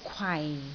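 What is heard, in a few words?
"kwai4", Cantonese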